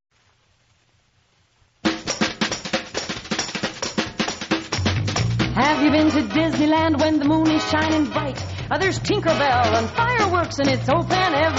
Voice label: radio spot; disneyland